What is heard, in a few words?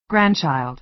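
"grandchild", American English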